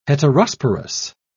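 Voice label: "heterosporous", English, British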